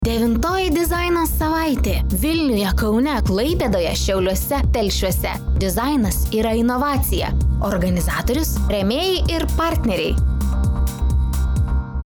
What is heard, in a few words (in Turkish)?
Litvanca Kadin, Kadın Ses; litvanca seslendirme; kadin sesler